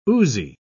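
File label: "oozy", British English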